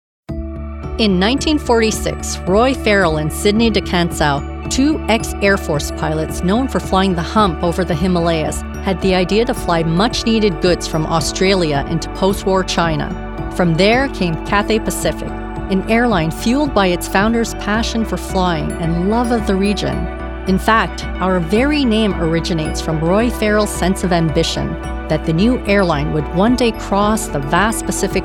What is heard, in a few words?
Corporate Narration